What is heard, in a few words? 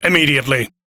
Crew Accept, Male, en; Railjack Crew; Arbiters Hexis